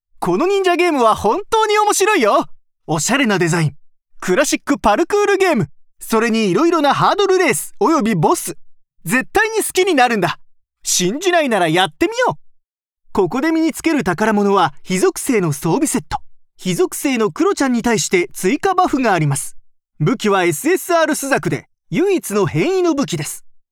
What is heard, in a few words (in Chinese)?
日籍日语男信息流游戏广告